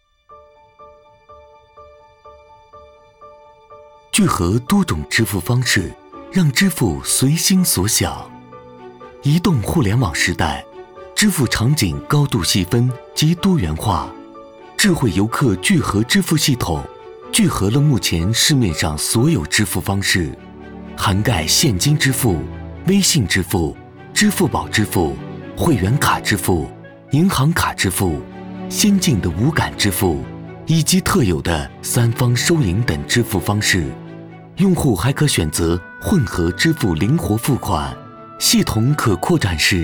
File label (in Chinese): 科技感觉; 科技宣传片